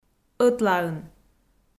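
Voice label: "ӧтлаын", Komi, female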